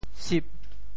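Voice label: "sip8", Teochew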